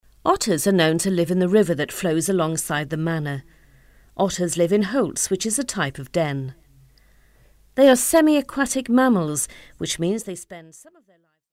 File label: Otters English